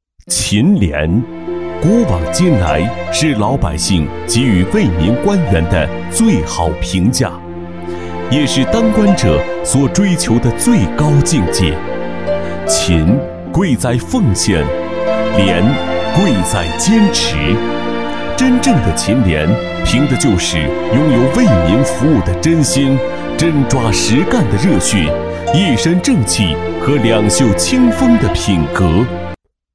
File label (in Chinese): 男 大气, 年轻气质 自然诉说; 我身边的勤廉故事